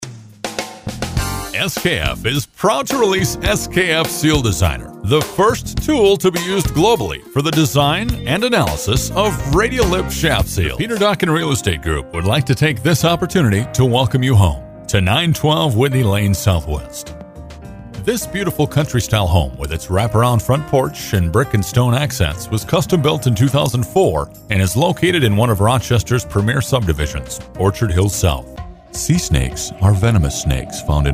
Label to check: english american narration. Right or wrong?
Right